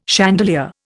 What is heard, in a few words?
"chandelier", Indian